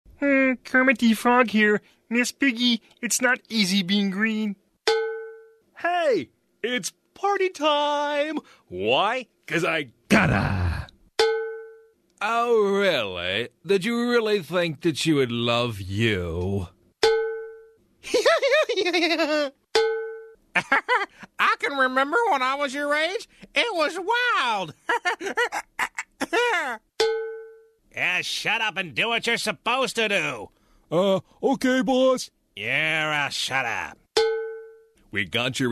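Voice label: character, narrator USA male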